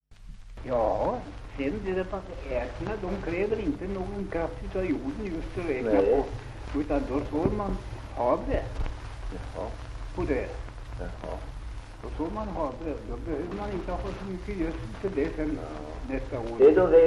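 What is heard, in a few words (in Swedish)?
Dingtuna Västmanland, Svenska sagor, dialekter; Folkminnen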